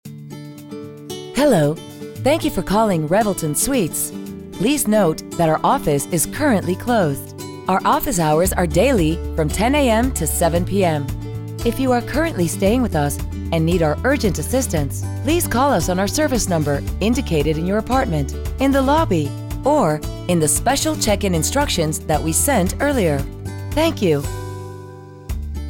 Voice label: IVR voice